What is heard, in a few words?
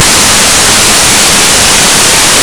hutt, voices male; Хатт